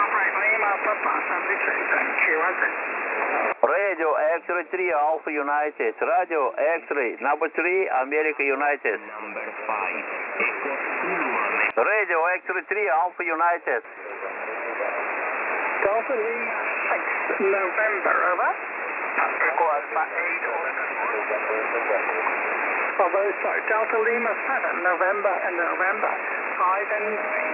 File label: qso 18156.5 khz